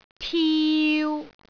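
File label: "tiu3", Cantonese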